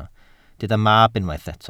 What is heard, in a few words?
"mab", Welsh